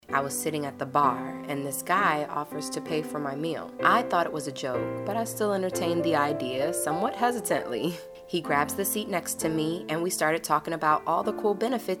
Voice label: Membership Card Promo